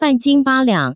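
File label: ban jin ba liang, Taiwan Mandarin; chinese idioms; chengyu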